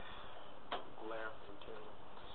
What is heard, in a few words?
Man Mumbling